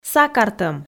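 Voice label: "сакартӧм" Komi, female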